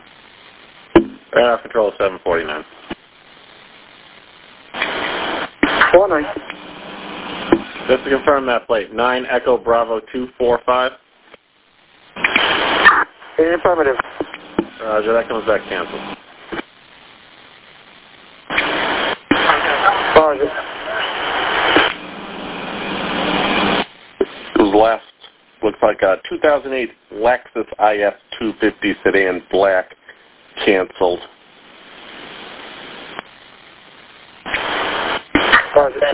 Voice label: Police Radio